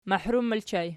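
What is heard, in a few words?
"mahrum", ergative plural, Archi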